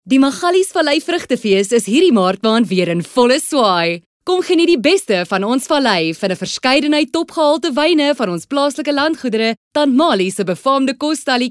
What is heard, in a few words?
Bright South African Neutral